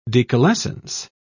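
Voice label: "decalescence", English, British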